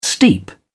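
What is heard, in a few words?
"steep", British English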